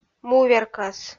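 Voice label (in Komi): "му веркӧс", Komi, female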